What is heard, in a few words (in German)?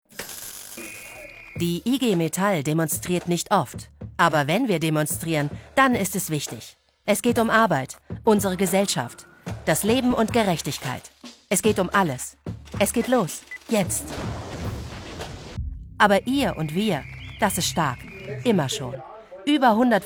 Imagefilm; IGMetall